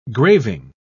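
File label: "graving", British English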